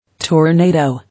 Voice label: "Tornado", English